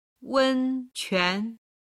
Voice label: "wenquan", Chinese